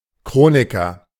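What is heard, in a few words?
"Chroniker", German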